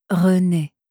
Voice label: "renee", franzoesische maedchennamen

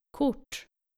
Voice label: "kort", nordische jungennamen

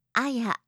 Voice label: "aya", japanische maedchennamen

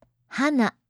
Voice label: "hana", japanische maedchennamen

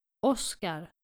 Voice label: "oskar", nordische jungennamen

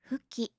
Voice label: "fuki", japanische maedchennamen

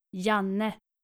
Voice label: "janne", skandinavische jungennamen

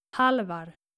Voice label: "halvar", nordische jungennamen

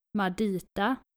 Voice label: "madita", nordische maedchennamen

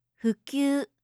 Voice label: "fukyu", japanische maedchennamen

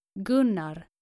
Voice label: "gunnar", skandinavische jungennamen

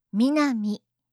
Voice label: "minami", japanische

